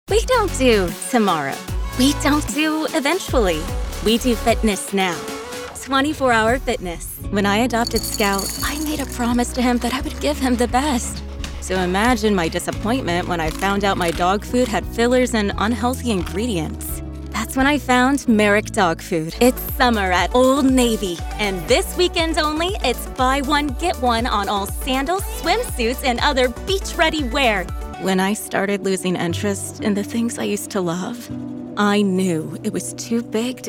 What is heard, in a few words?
Commercial; Commercial Demo